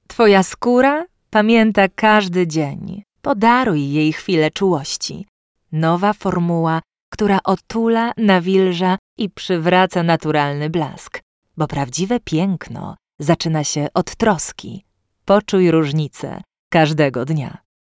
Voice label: dubbing